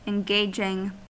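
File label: "engaging", US English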